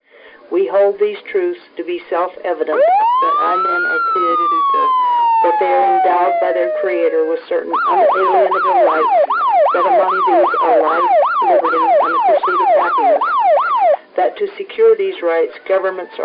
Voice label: declaration various noises fm